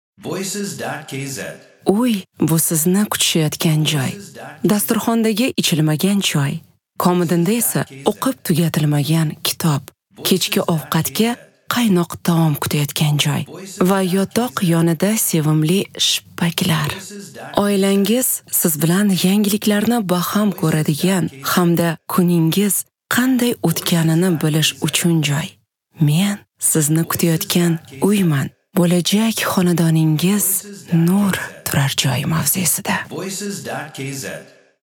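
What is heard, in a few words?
Dictor Uzbek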